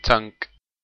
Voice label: tank in hebrew